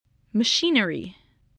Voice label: "machinery", voice